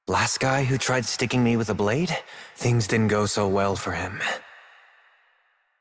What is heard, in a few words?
Operator Voice; Warframe; Jade Shadows Quest